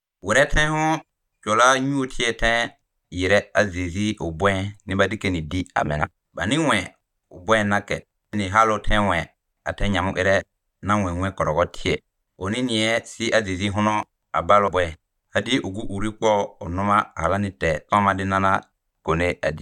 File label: Pougouli; Jesus et la femme pecheresse; Jesus and a Sinful Woman